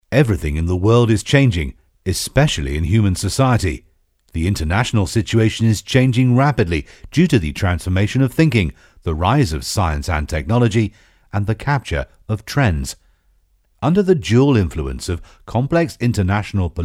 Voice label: 英文旁白; 虎牌能源公司宣传视频脚本; 英国广播公司电台、电视台和英式英语配音

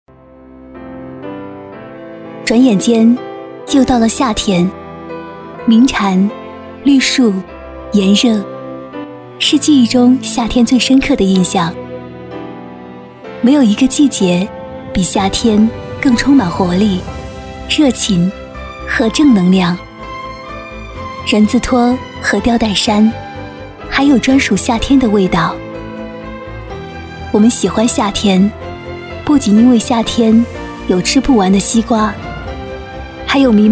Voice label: 女 旁白; 魔力风爱上夏天

